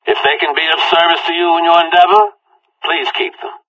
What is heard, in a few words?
conversation